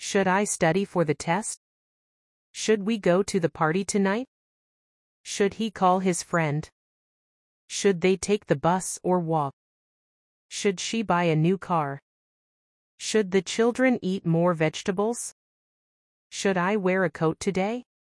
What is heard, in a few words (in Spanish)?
gramatica preguntas should ingles; clase preguntas con should